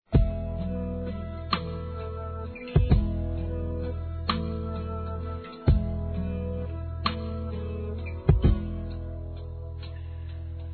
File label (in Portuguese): Aniversário Mãe Distante DUAS VOZES; Aniversários; Aniv Familiar